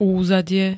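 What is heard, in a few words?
"úzadie", Slovak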